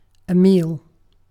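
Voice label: "meal", British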